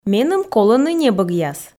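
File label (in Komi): меным колӧны небӧгъяс, Komi, female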